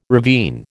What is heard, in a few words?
"ravine", American